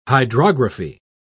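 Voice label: "hydrography", British English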